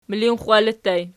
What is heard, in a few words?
"milinxxwalli", Archi